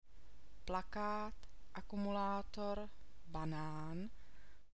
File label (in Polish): aa przyklady, czeski; plakát, akumulátor, banán; CZESKI ALFABET